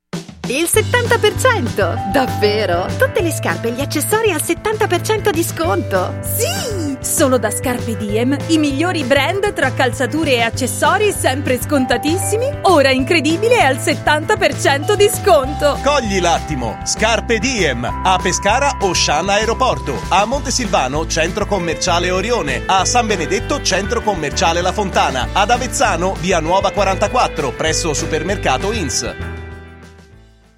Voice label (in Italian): Spot Saldi Radio; Scarpediem; Parsifal